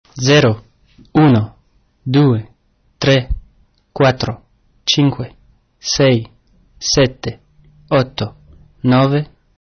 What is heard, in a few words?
count italian